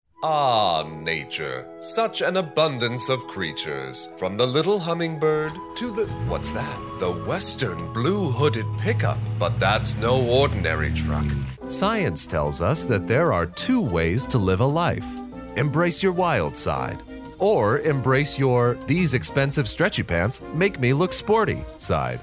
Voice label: commercial